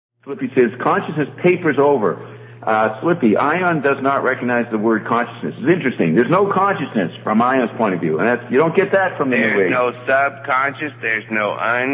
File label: rant